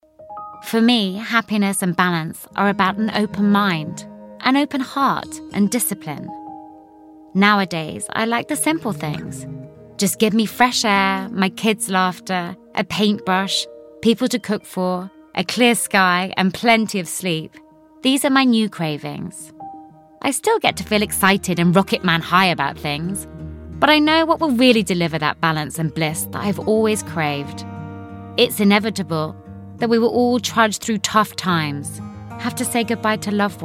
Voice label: female british english commercial